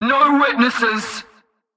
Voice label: Female Alert Line, Cultist Spotted; NPC; Heist